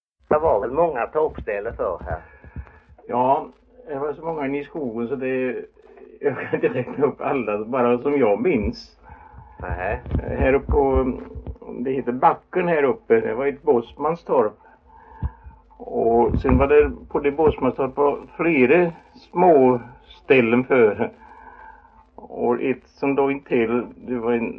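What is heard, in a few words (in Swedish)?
Idala Halland, dialekter; Folkminnen; Svenska sagor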